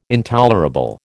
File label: "intolerable", American